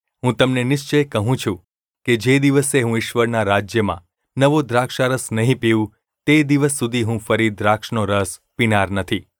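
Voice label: gu ulb mrk, Gujarati; mrk 14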